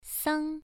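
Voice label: "sang" Mandarin Chinese, first tone, pronunciation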